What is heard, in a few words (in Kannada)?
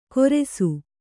"ಗೊರೆಸು", Kannada